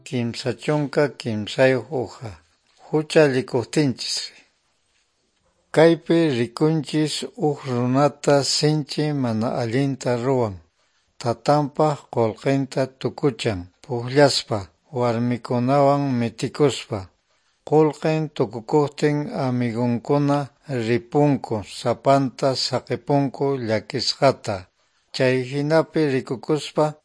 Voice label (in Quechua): good news quechua norte de bolivia apolo